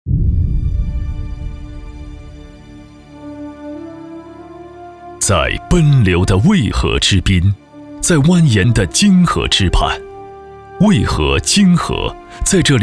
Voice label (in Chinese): 男国 专题 党建 稳重; 建设银行党建工作